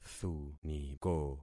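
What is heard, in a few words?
"thuniko", concatenated syllables; Affixing experiment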